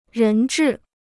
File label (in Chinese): "人质", Chinese